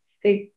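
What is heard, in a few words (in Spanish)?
Voice Acting es F, Spanish, female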